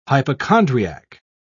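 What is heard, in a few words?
"hypochondriac", British English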